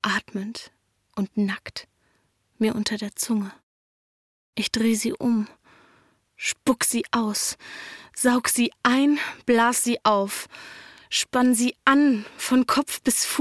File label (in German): sprecherdemo lyrik meine woerter